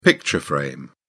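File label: "picture frame", British English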